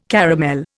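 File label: "caramel", Indian English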